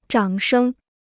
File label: "掌声", Chinese